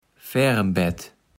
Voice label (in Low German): "Färembett", Platt